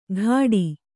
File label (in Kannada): "ಘಾಡಿ", Kannada